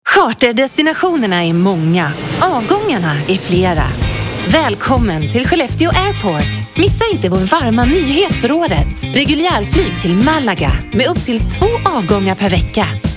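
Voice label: Swedish, female, commercial, energetic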